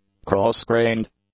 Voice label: "cross-grained", English; adjectives

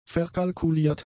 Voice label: "verkalkuliert", German; adjectives

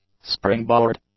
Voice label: "springboard", english; nouns

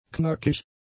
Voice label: "knackig", German; adjectives

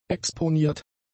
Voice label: "exponiert", German; adjectives

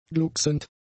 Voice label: "glucksend", German; adjectives

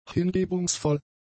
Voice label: "hingebungsvoll", German; adjectives

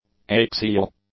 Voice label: "axial", English; adjectives

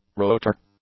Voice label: "rotor", English; nouns

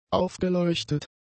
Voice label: "aufgeleuchtet", German; adjectives

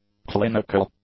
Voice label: "clinical", English; adjectives

